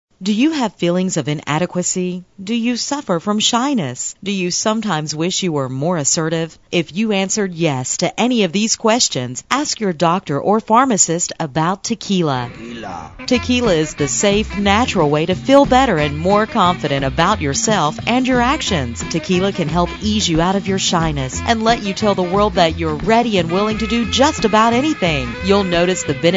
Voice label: Alcohol Commercial